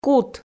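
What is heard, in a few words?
"кут", Komi, female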